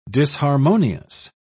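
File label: "disharmonious", British English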